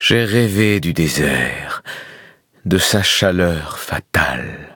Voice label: levelup, fr; Cookie Run